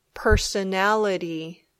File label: "personality", English